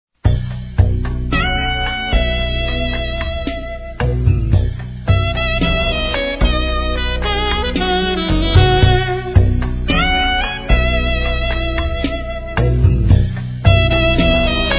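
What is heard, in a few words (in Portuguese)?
sogro Masculino, Aniversários; ANIV. Evangelico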